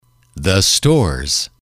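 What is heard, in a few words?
"the stores", English, male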